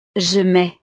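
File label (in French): "je mets", French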